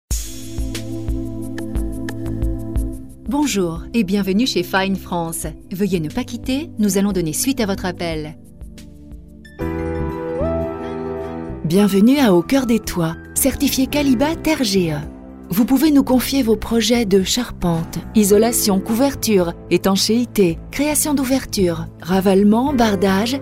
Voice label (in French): tel; attente téléphone